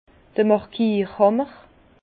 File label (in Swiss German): "markierhammer", Bas Rhin; vin; marqueur de fût